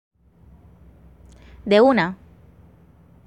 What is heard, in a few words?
Medellin Slang Expression